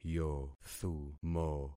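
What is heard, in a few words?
"yothumo", concatenated syllables; Affixing experiment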